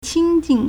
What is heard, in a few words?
"qing1 jing4", Chinese